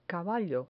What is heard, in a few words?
"caballo", Spanish